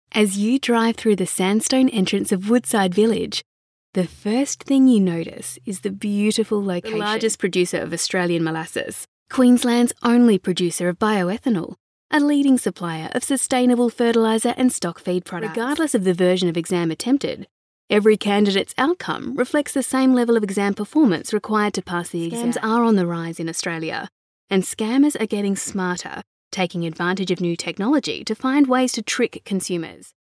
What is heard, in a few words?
Narration, voice overs